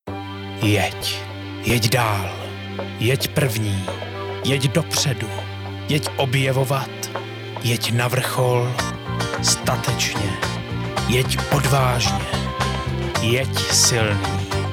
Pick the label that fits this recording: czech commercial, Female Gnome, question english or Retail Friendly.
czech commercial